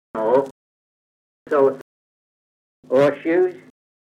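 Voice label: kent farningham, male, thought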